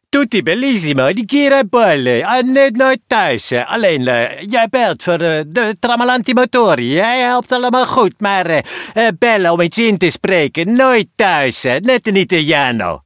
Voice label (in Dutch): Typetjes animatie en nasynchronisatieworkshop